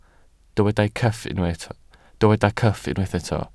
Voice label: "cyff", Welsh